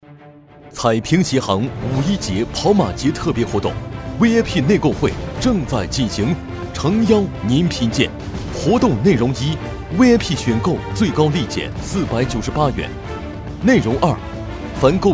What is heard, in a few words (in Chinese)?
广告 促销 鞋店活动促销, 男国